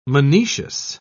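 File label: "monoecious", English, British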